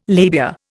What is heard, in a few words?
"labia", Indian